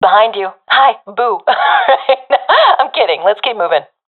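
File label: following friend, professional female; vo; Counter Strike Model Pack; CS:GO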